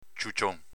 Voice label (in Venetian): ciucion, Veneto